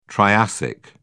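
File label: "triassic", British English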